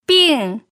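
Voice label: "bing", pinyin, fourth tone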